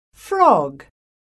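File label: "frog", English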